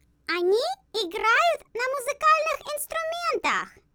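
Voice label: Russian playing musical instruments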